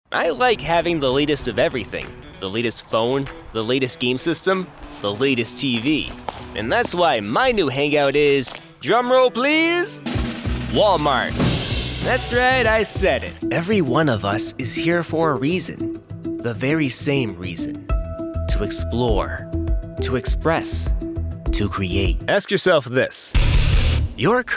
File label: Commercial